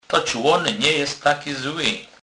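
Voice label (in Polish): "toc", Kurpie dialect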